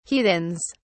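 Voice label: "heathens", British English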